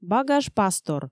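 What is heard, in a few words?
Komi, female; багаж пастор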